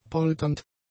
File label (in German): "polternd", German; adjectives